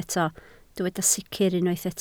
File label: "sicr", Welsh